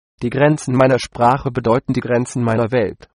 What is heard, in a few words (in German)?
proverb, de